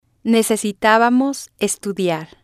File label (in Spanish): "necesitabamos Estudiar", spanish